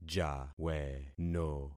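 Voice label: "jaweno", concatenated syllables; Affixing experiment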